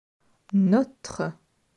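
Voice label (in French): "Notre", French; grammaire; adjectifs possessifs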